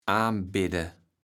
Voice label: "aanbidde", platt

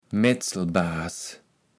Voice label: "Metzelbaas", kaevels platt

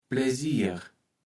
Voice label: "Plesier", kaevels platt

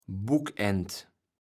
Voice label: "Buckend", kaevels platt

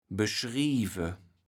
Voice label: "beschrieve", kaevels platt

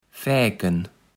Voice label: "Fäken", Platt

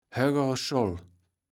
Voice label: "Höggere Scholl", kaevels platt

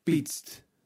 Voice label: "pitzt", kaevels platt

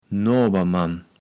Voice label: "Noabermann", Platt

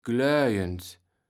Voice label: "gläuend", kaevels platt

